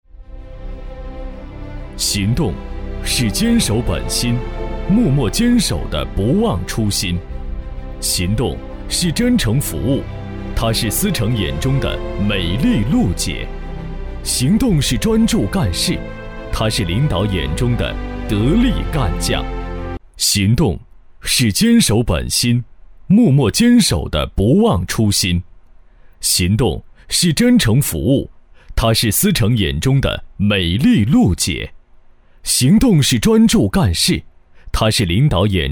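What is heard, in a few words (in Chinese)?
男【宣传片】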